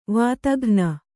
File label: "ವಾತಘ್ನಿ", Kannada